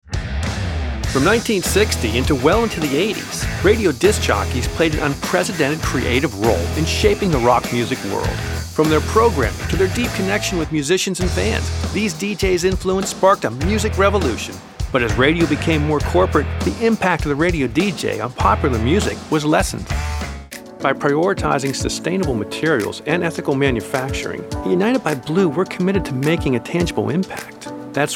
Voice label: Narration